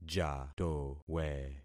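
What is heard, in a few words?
"jatowe", concatenated syllables; Affixing experiment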